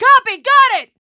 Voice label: american Idle; azurlane hoi4; azurlane tj